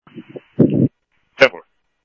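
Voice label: police department, scanner audio trunk; ThompsonPeakHigh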